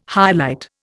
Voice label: "highlight", Indian